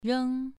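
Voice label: "reng" Mandarin Chinese, second tone, pronunciation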